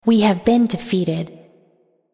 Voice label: Defeat, Announcer; Unit Voices